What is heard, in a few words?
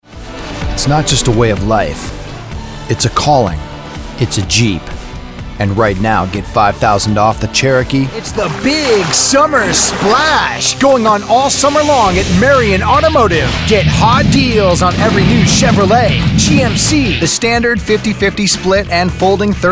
Car Spot